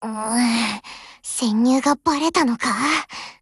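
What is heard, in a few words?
BREAK Japanese, Voice; GF Alarm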